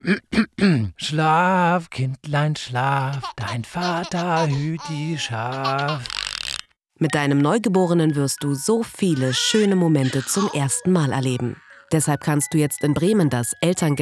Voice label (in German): spotify werbespot; elterngeld digital